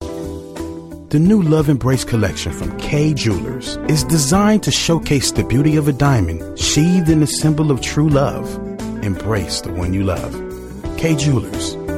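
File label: American English, Commercial; GoLocalise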